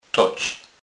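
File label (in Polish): "toc", Kurpie dialect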